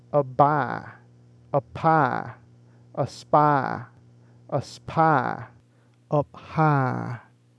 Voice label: Engl Stop; English labial stops